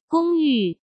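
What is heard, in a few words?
"公寓", Mandarin Chinese; hsk; chinese flashcards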